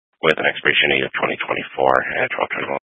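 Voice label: Troop 2A Dispatch, scanner audio recording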